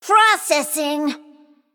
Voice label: Female Mech Attack

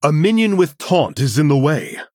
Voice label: Male Human ERROR TAUNT

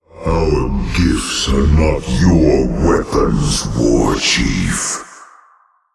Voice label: Male Old God START; GARROSH

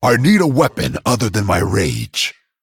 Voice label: Male Dragon ERROR NEED WEAPON

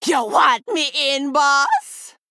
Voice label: Female Troll

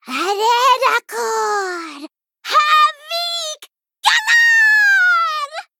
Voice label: Female Gnome Play

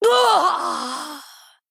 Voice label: Female Human Death

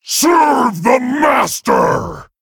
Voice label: Male Satyr Attack

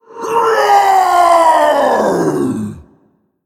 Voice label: Male Undead Attack

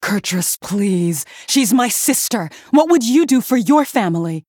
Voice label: Female Human; Cariel

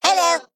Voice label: Male Mech ERROR TARGET; Annoy O

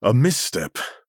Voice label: Male Human OOPS

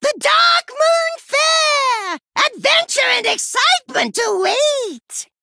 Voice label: Male Gnome Play

